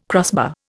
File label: "crossbar", Indian